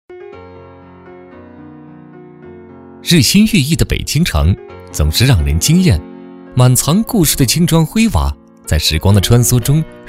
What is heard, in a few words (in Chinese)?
男 专题 轻松 讲述; 北京地铁